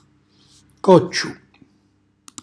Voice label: "cocciu", Calabrese; recipiente di ceramica